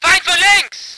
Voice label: voice ger vehicle

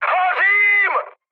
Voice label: on fire, speech cze, mood high; War Thunder

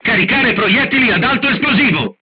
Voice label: load HE, crew tanks italian; War Thunder

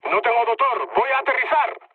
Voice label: damaged engine, mood med, speech; War Thunder

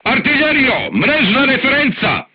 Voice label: voice message art command, crew tanks serbian

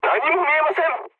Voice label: jp chat; War Thunder

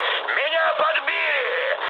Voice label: aircraft damaged, mood med, speech ru; War Thunder

